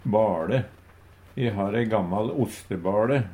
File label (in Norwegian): "baLe", Numedalsmål; Substantiv hokjønn